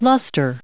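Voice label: "luster", US English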